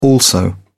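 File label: "also", British English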